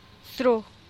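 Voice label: "sru", Teotitlán del Valle Zapotec; perfect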